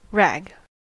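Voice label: "rag", US English; pronunciations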